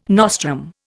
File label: "nostrum", Indian